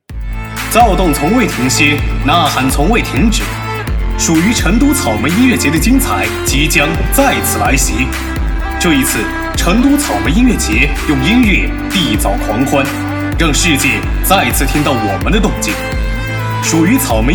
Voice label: 草莓音乐节广告

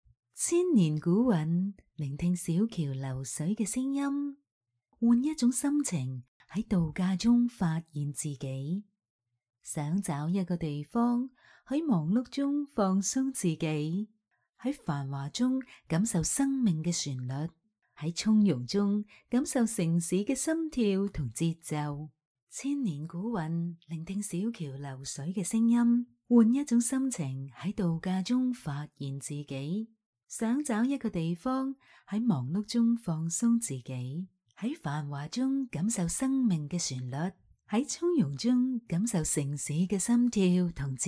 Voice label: 专业粤语女声两种声线; 一焱堂

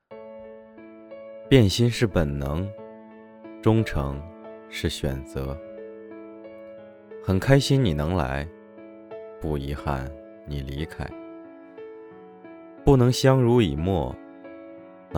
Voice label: 青叔

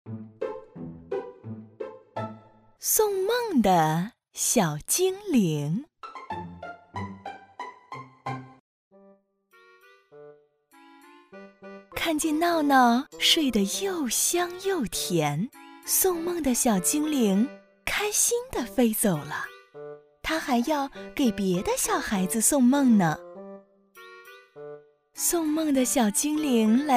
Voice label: 儿童 故事

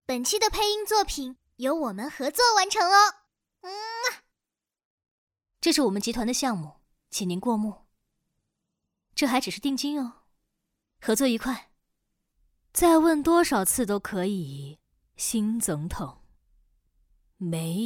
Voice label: 少女青年中年

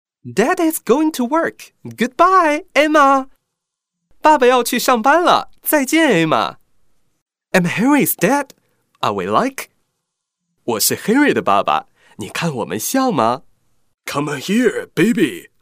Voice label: 少儿英语 点读机 干声

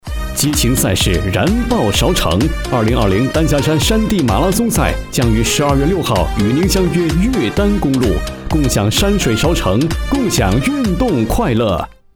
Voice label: 运动赛事激情

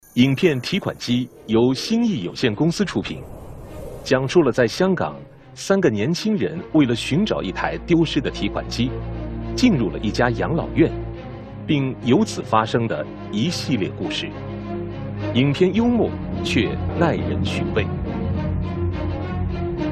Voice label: 解说片段; 《提款机》

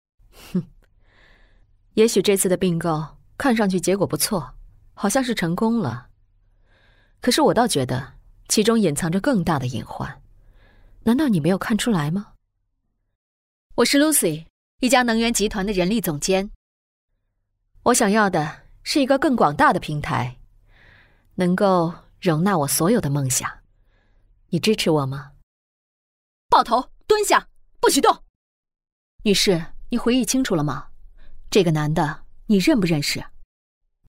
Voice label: 角色 都市职业女性

